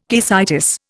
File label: "caecitis", Indian